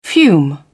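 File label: "fume", British English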